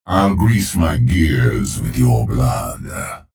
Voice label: chatter neutral; clutch